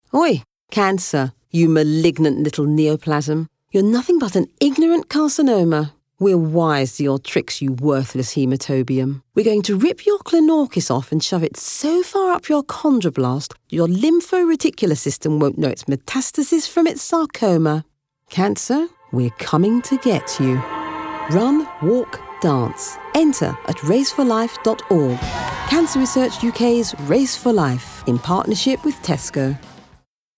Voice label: Medical Commentary, voices; Cancer UK